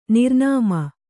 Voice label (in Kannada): "ನಿರ್ನಾಮ", kannada